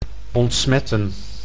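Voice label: "ontsmetten", Dutch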